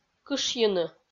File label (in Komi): "кышйыны" Komi